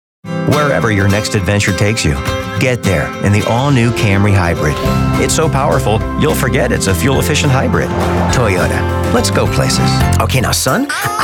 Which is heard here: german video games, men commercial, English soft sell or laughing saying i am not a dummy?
men commercial